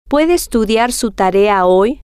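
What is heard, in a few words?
puede Estudiar Su Tarea Hoy, Spanish, female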